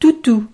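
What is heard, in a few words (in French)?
"toutou", French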